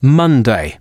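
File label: "monday" male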